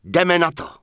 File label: Human Male Standard Greetings; czwow; HumanMaleStandardNPC